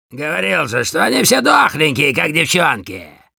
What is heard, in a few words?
Demoman cheers, ru